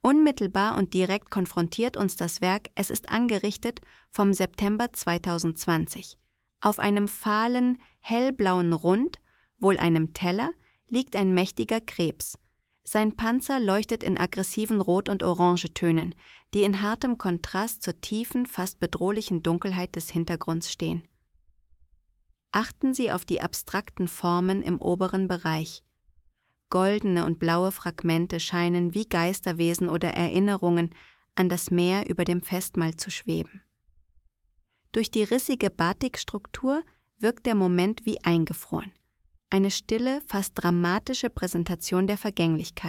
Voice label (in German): voice audio guide; es ist angerichtet